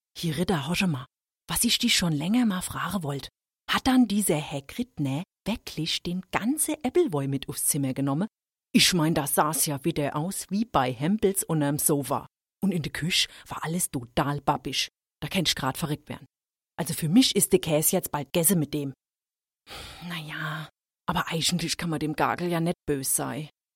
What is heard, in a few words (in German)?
Dialekt Hessisch